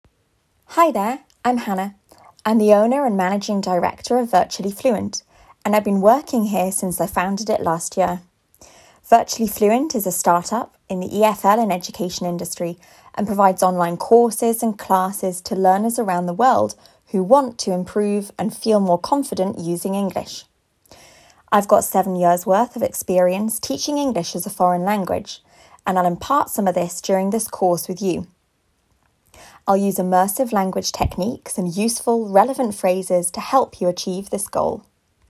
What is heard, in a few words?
Dictation connected speech